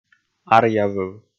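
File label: "аръявыв", Komi, male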